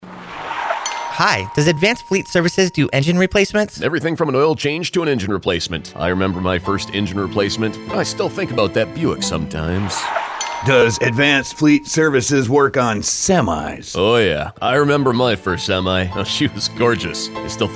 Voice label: radio ad; Advanced Fleet Services